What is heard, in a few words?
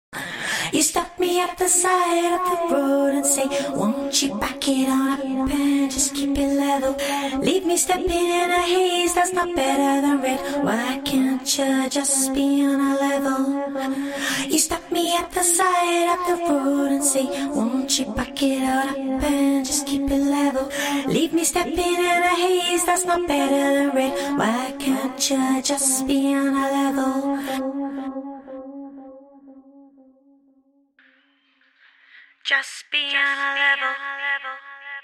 Acapellas, 140BPM